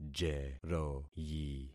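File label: "jeroyi", concatenated syllables; Affixing experiment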